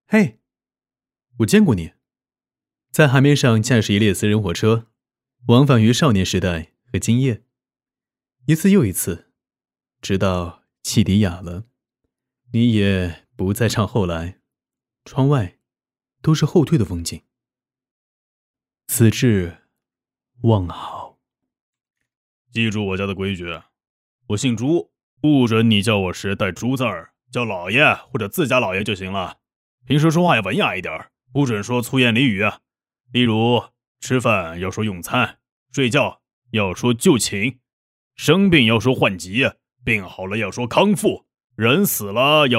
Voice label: 男, 角色-多声线展示-干音

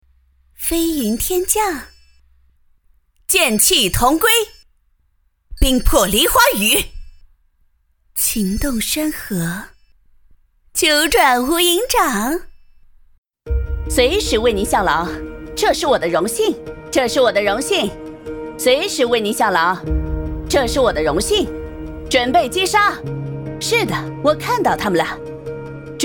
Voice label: 角色音 游戏角色风格多样, 女

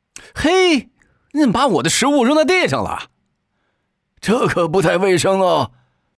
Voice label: 角色 青年老年

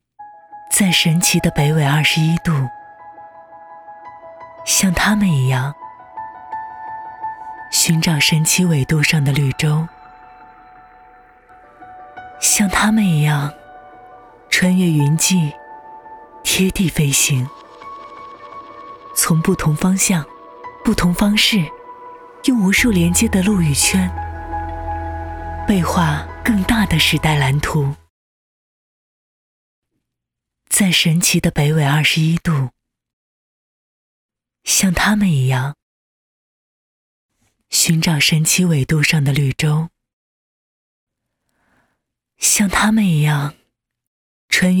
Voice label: 女 专题片; 景洪

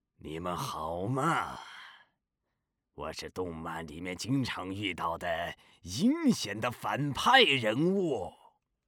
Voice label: 男 角色 阴险嘶哑反派男

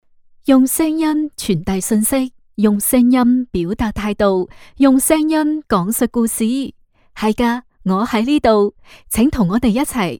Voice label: 粤语统一样音

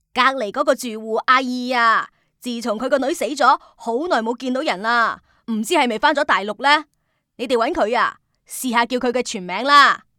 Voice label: Y女 粤语中年妇女

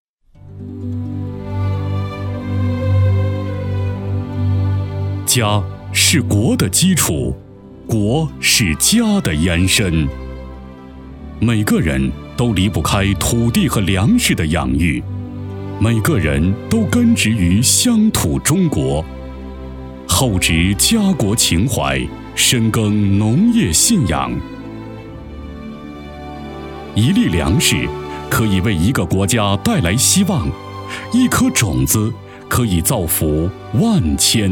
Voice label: 男 厚重大气 农业宣传片

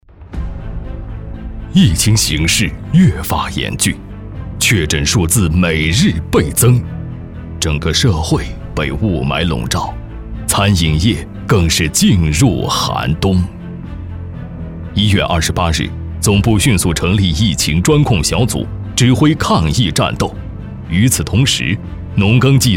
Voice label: 男 纪录片

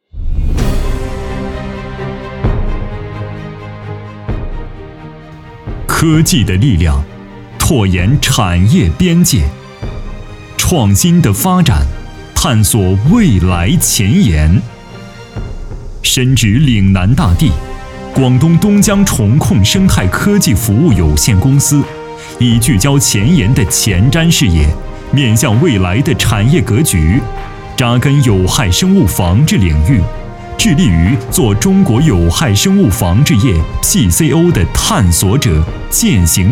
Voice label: 男 宣传片 虫控; 广东东江虫控

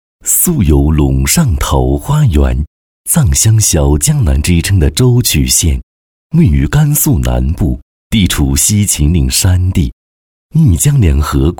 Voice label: A男 宣传片; 中华蜂特色养殖产业

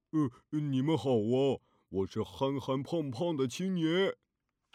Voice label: 男 角色 憨憨男

